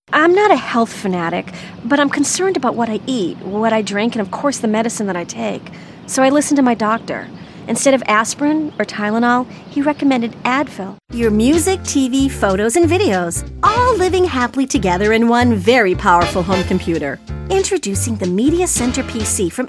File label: commercial